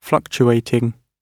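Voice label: "fluctuating", British English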